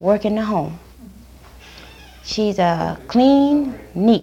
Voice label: Conversation with 31 year old black female Detroit Michigan, american english dialect